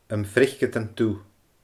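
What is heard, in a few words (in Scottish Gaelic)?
"Am Freiceadan Dubh", Scottish Gaelic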